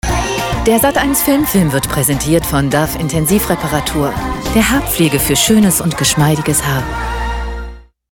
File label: Presenter